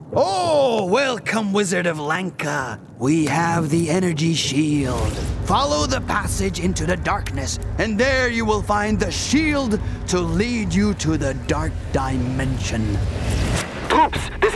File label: Game Reel